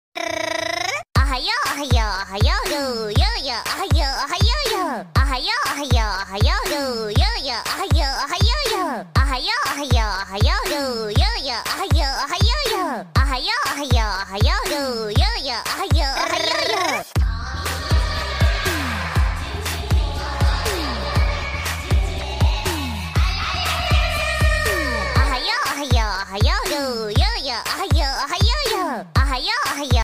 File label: ohayo yo alarm, anime budilnik